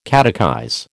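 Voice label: "catechize", American English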